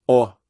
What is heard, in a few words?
Phoneme Male; Commonscript; Lynguistykon